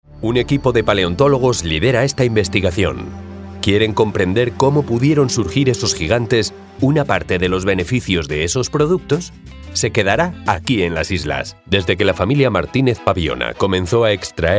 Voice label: Espanol Espana Masculina Adulta

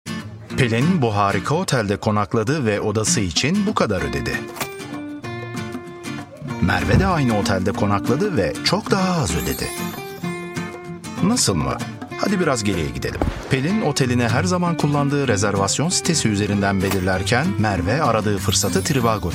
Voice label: Turco Turquia Masculina Adulta

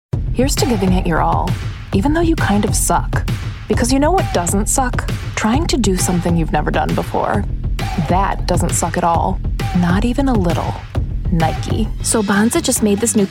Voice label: Ingles Estados Unidos Femenina Joven

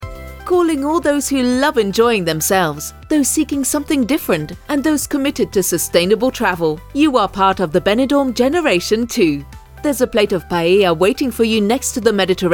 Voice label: Ingles Reino Unido Femenina Adulta

